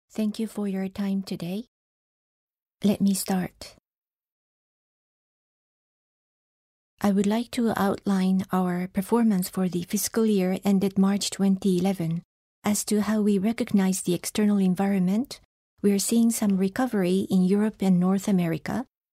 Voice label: english